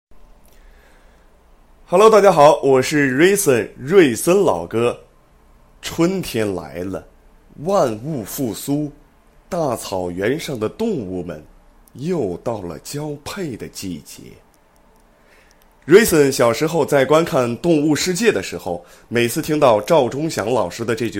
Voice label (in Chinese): 电台节目